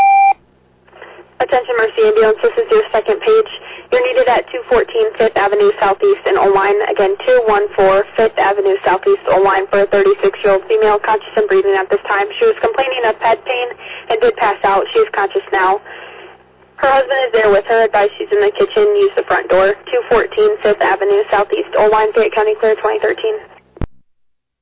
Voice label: Oelwein Ambulance, dispatch